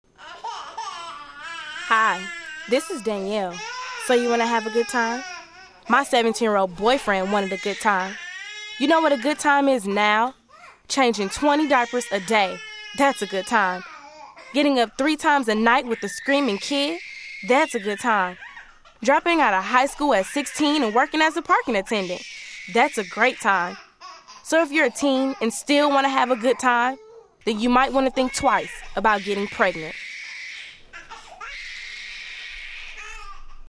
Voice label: female; United Way Milwaukee